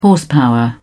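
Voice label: "horsepower", British English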